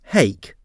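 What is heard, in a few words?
"hake", English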